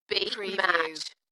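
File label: UK female, Dalekness, voice drop; Beat match; beanstalk audio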